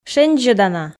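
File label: "шензьӧдана", Komi, female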